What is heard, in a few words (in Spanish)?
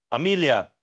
Voice Acting es M